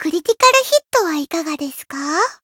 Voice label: chant jp